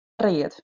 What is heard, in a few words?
"read", Anglo Saxon